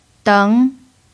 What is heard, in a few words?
"deng2", chinese pinyin